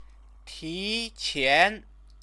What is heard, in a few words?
"tiqian", Chinese